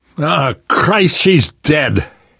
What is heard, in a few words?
grief female generic, survivor voice; source engine; left 4 dead 2; namvet